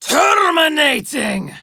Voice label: Attack Prime Grineer Female; Tau; Battle Barks